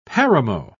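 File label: "paramo", British English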